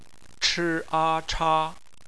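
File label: "cha", bopomofo, chinese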